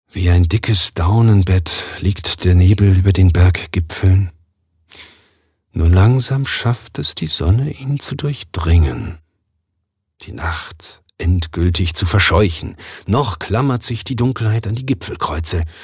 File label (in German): Schauspiel Variabel